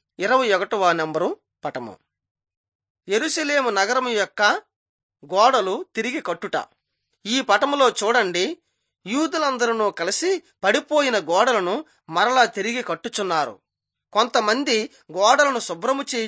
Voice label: telugu bangladesh; on trial for god

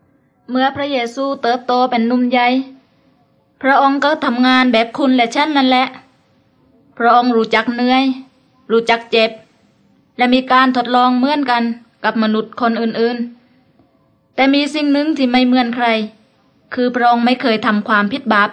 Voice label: words of life creation to christ thai southern

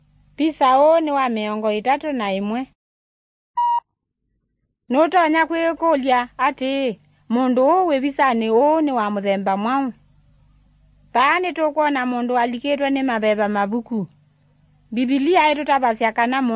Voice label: kikamba drama; good news; bible

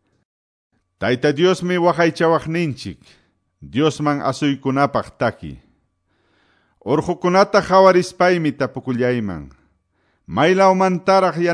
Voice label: salmos, quechua ayacucho

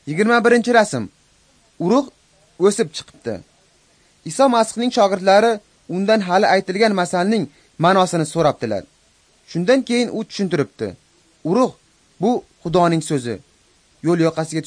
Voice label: uzbek northern

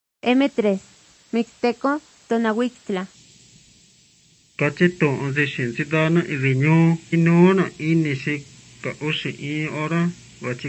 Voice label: mixtec diagnostic spanish mexico

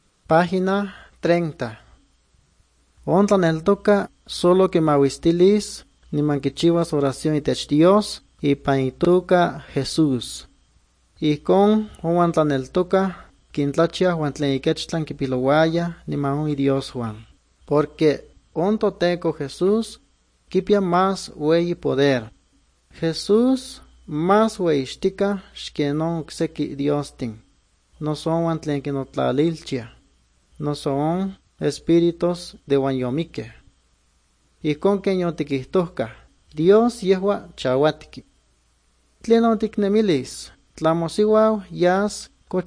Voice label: good news, nahuatl guerrero chilapa

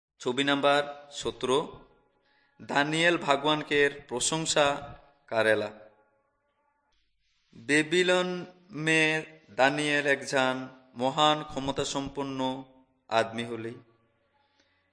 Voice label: urao, drama

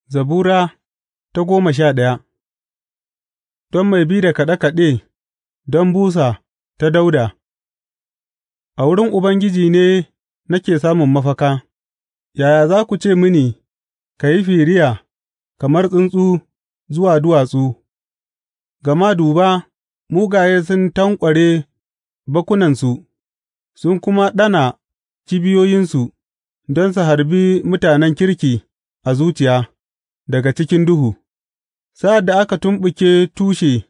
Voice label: hausa bible drama, psalms; hausa bsn nt drama

